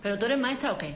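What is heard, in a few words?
Voice Acting es Female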